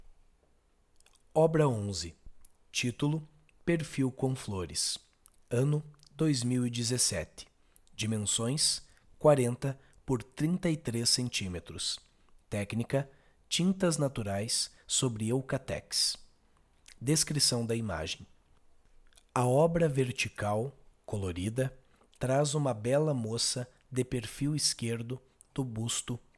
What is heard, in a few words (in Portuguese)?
audiodescricao; Galeria Virtual